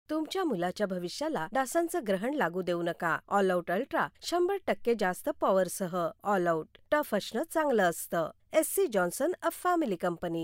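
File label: Marathi, female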